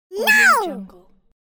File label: cartoon character screaming "no"